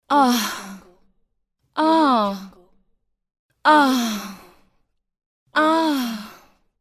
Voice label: ooh sexy female voice